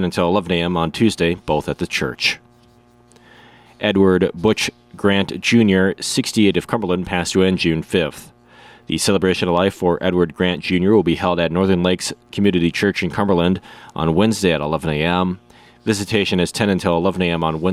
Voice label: Funeral Announcements